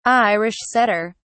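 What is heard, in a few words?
"irish setter", British English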